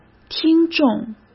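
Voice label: "听众", Mandarin Chinese; chinese flashcards; hsk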